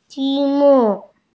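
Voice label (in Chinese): "ji1mu4", Mandarin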